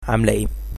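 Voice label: how are you, Egyptian Arabic; عامل إيه؟; f - ('amla ey?)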